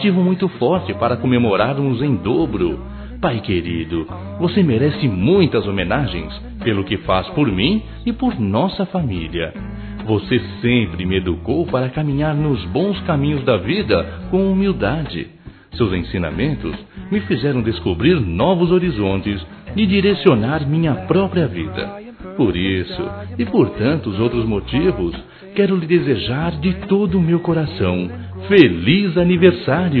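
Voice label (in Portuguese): aniversariante masculino, telemensagens dia dos pais; Telemensagens 2017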